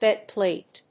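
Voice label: "bed plate", English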